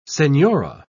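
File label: "senhora", English, British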